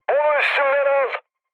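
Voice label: Turkish aircraft damaged, mood med, speech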